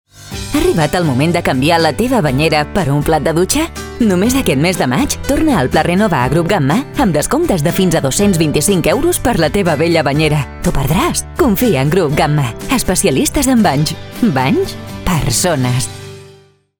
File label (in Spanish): comercial catalán